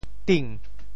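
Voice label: "ting2", Teochew; 振; zhèn; 潮州; 白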